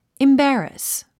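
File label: "embarrass", English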